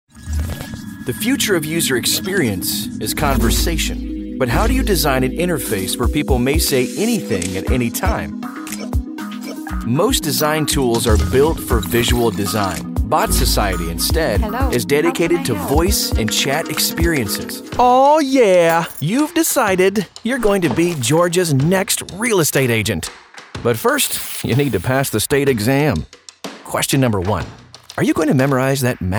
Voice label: Explainer